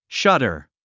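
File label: "shutter", English; 発音 ʃʌ’tə r シャタァ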